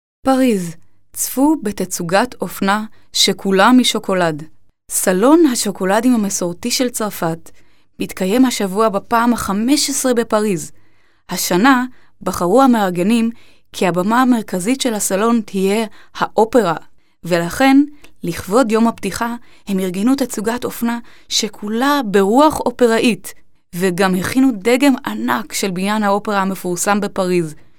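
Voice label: hebrew female voice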